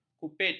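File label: "kupit", Malay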